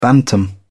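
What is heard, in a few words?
"bantam", British English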